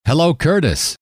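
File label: "hello", male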